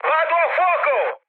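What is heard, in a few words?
Italian on fire mood high, speech; War Thunder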